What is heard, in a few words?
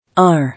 "Are", English